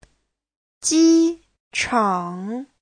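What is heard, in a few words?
"jīchǎng", Mandarin Chinese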